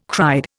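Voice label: "cried", Indian English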